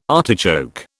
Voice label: "artichoke", British